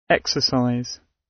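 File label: "exercise", British English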